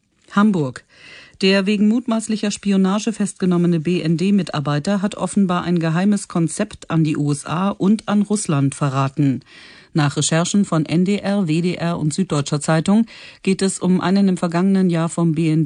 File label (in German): Nachrichtenmeldung